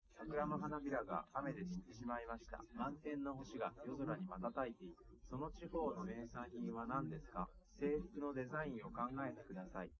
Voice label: male, live rec; SiSEC11; underdetermined